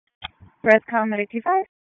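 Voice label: Public Safety Tactical, p25; wake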